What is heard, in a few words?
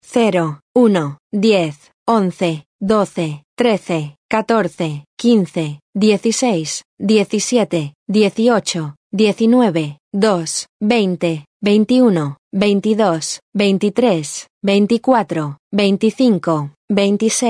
Dealer Voice, sk, Roulette; real Dealer European Roulette Vintage